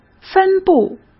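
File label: "分布", Mandarin Chinese; chinese-flashcards; hsk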